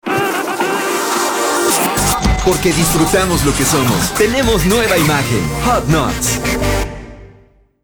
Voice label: RADIO; HOT NUTS; NUEVA IMAGEN 2015